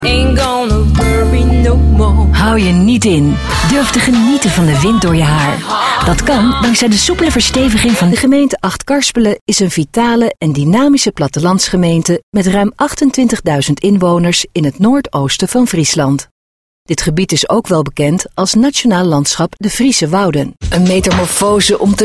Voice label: nl commercial